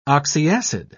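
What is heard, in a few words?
"oxyacid", British English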